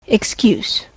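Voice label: "excuse" noun, US English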